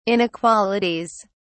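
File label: "inequalities", British English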